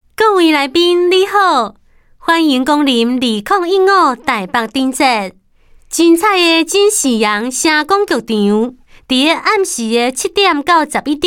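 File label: Lantern Festival Hokkien Taiwan, Female; LaternFestival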